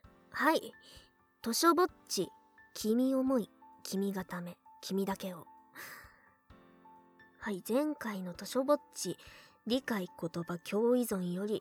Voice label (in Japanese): フリートーク